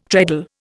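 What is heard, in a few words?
"treadle", Indian English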